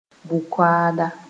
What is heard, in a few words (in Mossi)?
"bʋ-kaooda", moore; judge